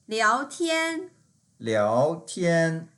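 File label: "liao2tian1", Mandarin Chinese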